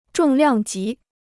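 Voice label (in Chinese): "重量级" Chinese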